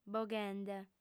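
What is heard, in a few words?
"boghende", female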